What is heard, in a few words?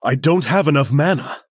Male Human need mana; Arthas